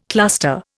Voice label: "cluster", Indian